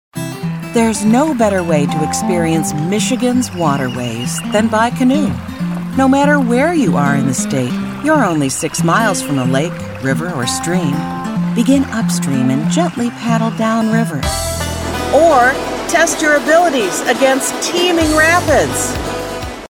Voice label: narration variety mich tourism narration